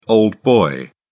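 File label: "old boy", British English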